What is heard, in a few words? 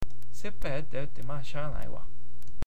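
"seppai", Awaji dialect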